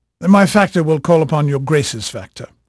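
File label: English male, voice; Pirates Of The Caribbean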